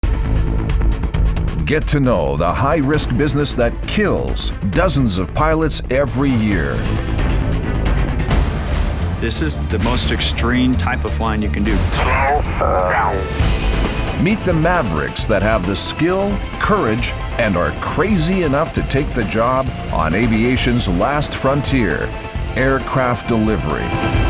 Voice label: english american tv shows; Profundo e autoritário com clareza elegante e calor